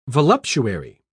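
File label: "voluptuary", British English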